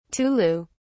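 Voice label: "tulu", British English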